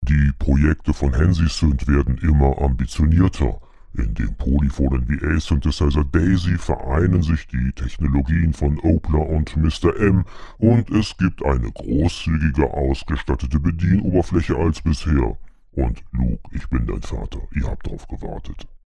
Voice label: Mackie DLZ Creator XS Default Robot; gross